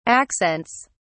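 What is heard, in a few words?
"accents", English, British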